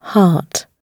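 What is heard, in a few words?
"heart", British English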